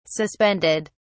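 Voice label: "suspended", British English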